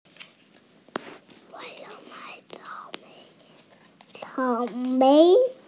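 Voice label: "cao3mei2", Mandarin Chinese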